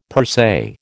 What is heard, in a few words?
"per se", American